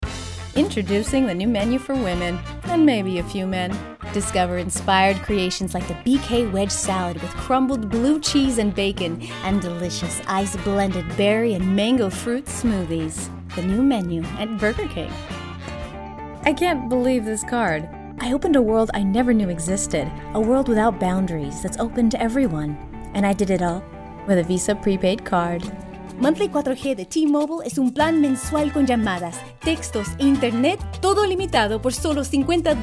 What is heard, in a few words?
commercial vo